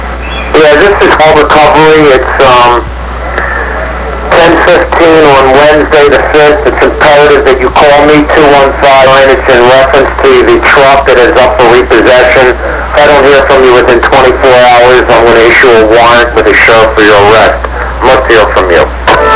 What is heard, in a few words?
threat; The collector (actually, this is a repo outfit) is attempting to repossess a vehicle